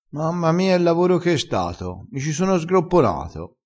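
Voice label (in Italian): "sgropponassi", vocabolario dialettale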